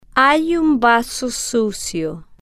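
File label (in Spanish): hay un vaso sucio, Spanish, female, slow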